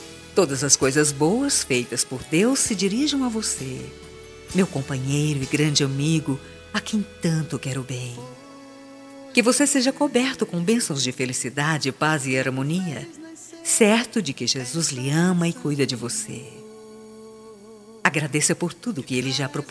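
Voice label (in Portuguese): aniversario evangelico para esposo, telemensagem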